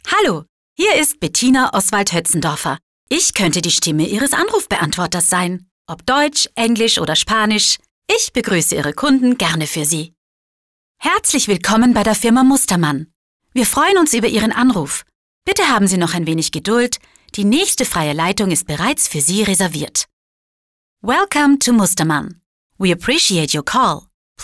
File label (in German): Telefonansagen